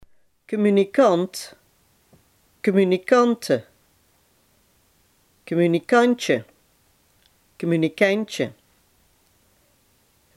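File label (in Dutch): "communicant" Limburgish dialect word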